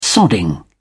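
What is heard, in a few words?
"sodding", British English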